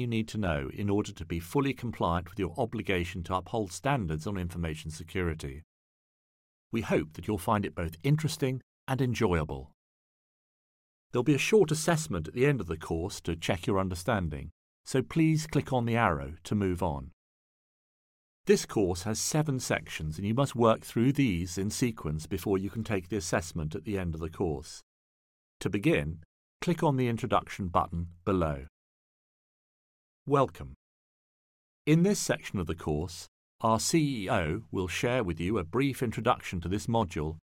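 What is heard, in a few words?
British English, e-Learning; GoLocalise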